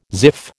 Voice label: "ziff", American